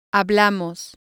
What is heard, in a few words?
"hablamos", spanish